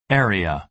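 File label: "area", American English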